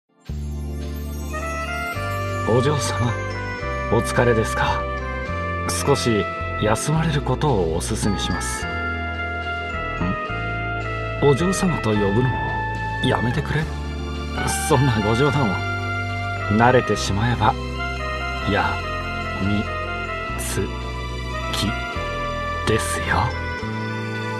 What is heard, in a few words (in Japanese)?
紳士なセリフサンプル, 声質・デフォルト, 雰囲気・素敵な執事さま; 紳士なセリフサンプル1